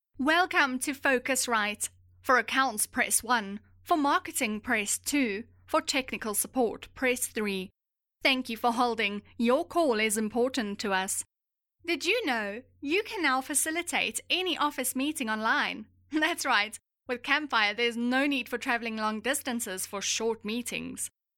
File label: Telephone